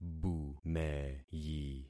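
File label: "bumeyi", concatenated syllables; Affixing experiment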